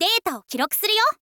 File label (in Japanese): "data wo kiroku suruyo", girl; voice